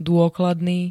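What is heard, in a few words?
"dôkladný", Slovak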